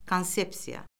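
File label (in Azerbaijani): "konsepsiya", Azerbaijani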